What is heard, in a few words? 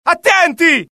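alert, Italian; mohaa; dialogue; sighted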